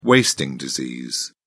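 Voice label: "wasting disease", British English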